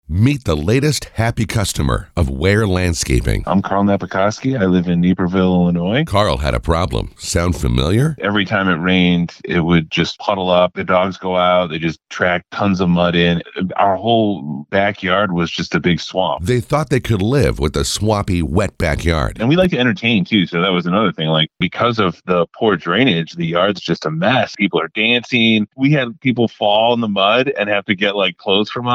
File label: radio ad; KARL